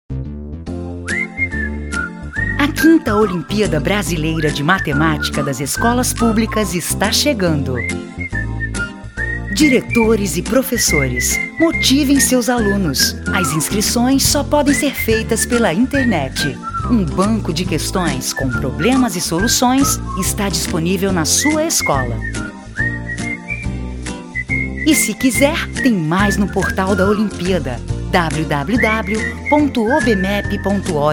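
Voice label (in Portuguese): Português - Brasileiro, Voz Padrão - Grave, Feminino; MEC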